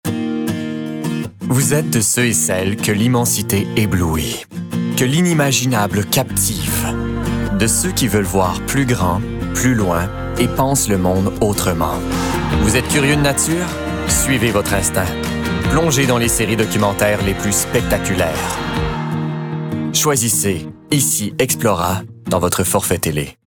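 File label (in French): fr qc naturel annonceur affirme determine energique jeune adulte; ici explora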